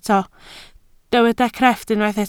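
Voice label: "crefft" Welsh, spoken word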